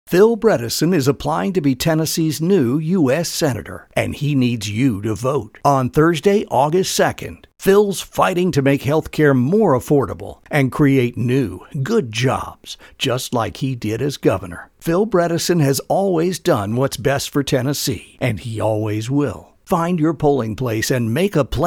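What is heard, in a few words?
U.S. Senate Campaign Ad, male voice; Political Spots; Bredesen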